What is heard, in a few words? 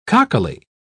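"cockily", British English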